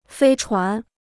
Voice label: "飞船", Chinese

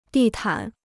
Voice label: "地毯", Chinese

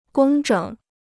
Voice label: "工整", Chinese

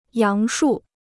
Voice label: "杨树", Chinese

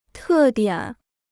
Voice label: "特点", Chinese

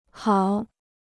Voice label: "好", Chinese

